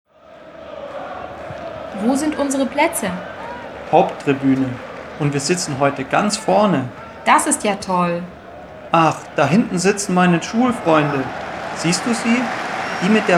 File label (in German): Dialog mit Stadiongeraeuschen